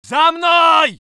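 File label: voice soviet infantry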